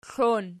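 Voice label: "klon" nominative, Archi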